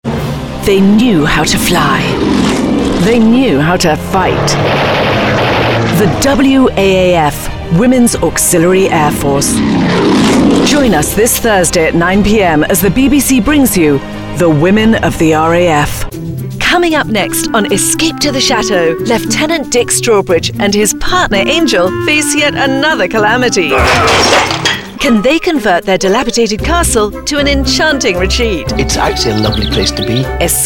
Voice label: Promo, british voice